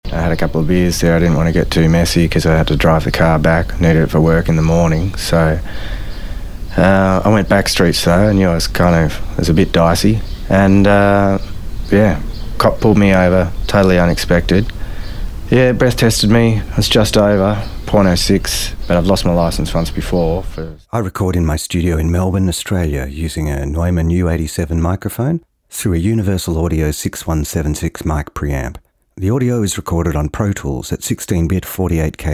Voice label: natural speak